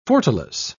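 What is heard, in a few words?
"fortalice", English, British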